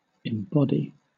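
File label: "embody", British English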